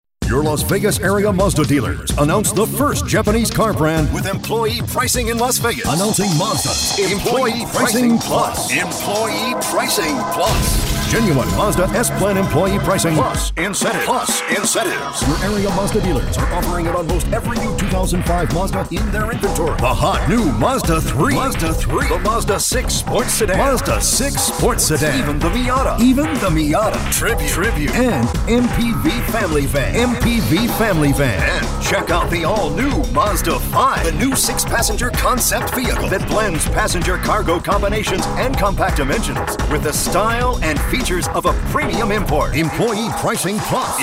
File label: Hard Sell